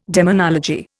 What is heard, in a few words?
"demonology", Indian